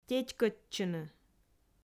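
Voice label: "тетькӧдчыны", Komi, female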